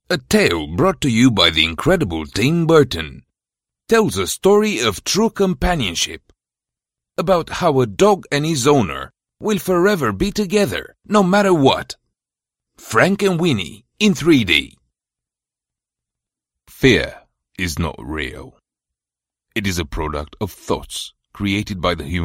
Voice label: Trailer and Promos

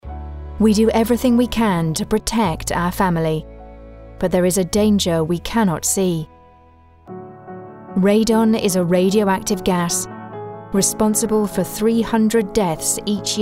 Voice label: TV commercial

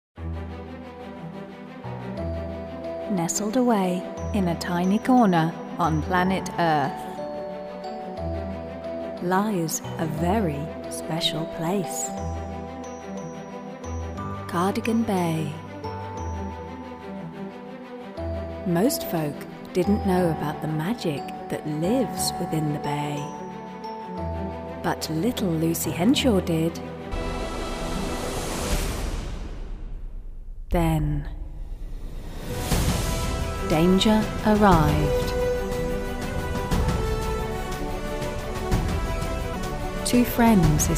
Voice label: trailer; magic dolphin

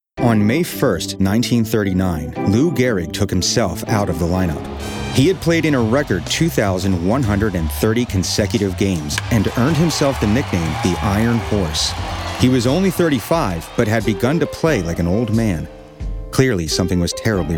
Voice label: Narration Bio Baseball

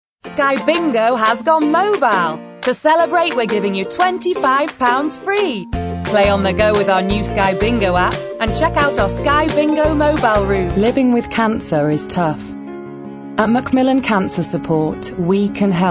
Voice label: ADVERTS MONTAGE

